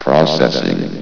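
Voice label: "processing", male synthesized announcer voice; blizzard; hlvox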